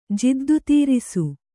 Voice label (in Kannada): "ಜಿದ್ದುತೀರಿಸು", Kannada